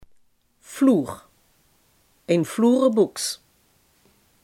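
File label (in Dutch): "fluweel" Limburgish dialect word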